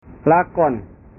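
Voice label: "lakon", Indonesian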